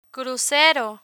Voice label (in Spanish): "crucero", Spanish